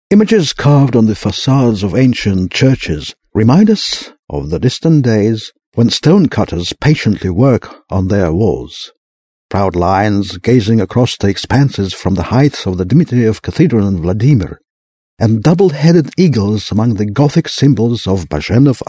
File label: british english male